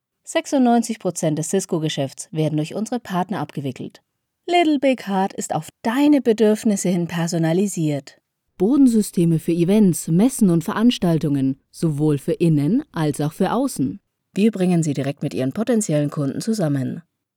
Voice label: Marketing Ads